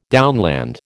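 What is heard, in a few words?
"downland", American English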